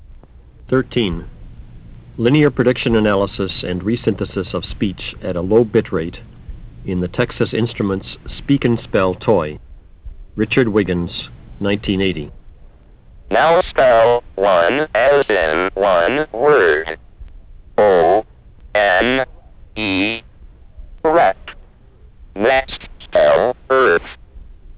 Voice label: speak n spell